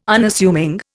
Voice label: "Unassuming", Indian

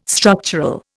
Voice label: "structural", Indian

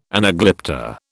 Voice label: "anaglypta", British

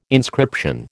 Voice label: "inscription", American

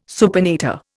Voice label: "supinator", Indian

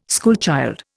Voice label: "schoolchild", Indian

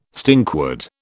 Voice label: "stinkwood", British

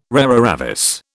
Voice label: "rara avis", British

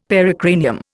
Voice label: "pericranium", Indian; Dictionary

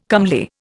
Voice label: "comely", Indian

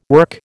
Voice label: "work", American

